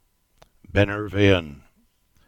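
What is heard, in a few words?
"Binnervean", Cornish; place-names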